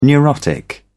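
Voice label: "neurotic", British English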